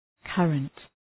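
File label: "current", English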